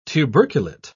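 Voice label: "tuberculate", British English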